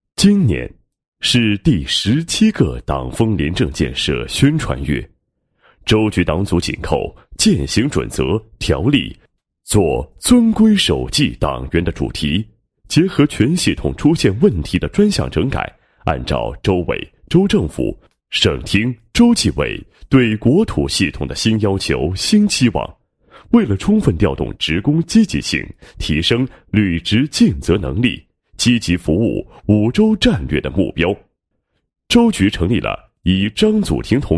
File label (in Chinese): 男 廉政建设 活动情况汇报; 党风廉政建设宣传月活动情况汇报